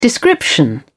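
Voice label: "description", British English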